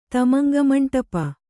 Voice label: "ತಮಂಗಮಂಟಪ", Kannada

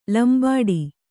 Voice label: "ಲಂಬಾಡಿ", Kannada; lambāḍi; noun; = ಲಂಬಾಣಿ.

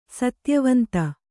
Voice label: "ಸತ್ಯವಂತ", Kannada

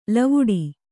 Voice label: "ಲವಡ", Kannada

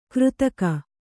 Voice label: "ಕೃತಕ", Kannada

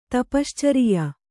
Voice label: "ತಪಶ್ಚರಿಯ", Kannada

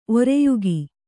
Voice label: "ಒರೆಯುಗಿ", Kannada

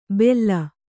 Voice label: "ಬೆಲ್ಲ", Kannada

